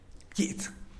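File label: "pkiits", Tlacochahuaya Zapotec; type of bee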